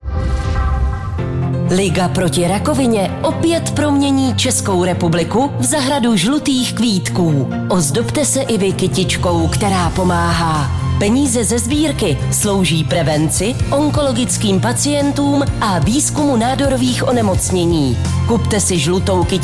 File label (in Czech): annonce; Radiožurnál